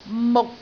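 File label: "muk6", Cantonese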